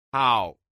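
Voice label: "hao", Chinese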